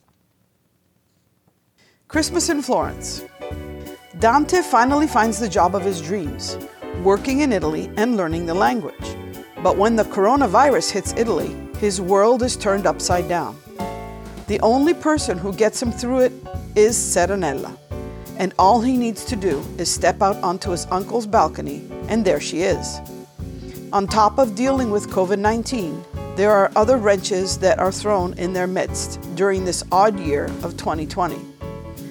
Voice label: Audio Promo w Music; Christmas in Florence